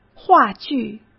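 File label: "话剧", Mandarin Chinese; hsk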